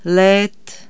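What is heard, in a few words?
Czech summer genitive plural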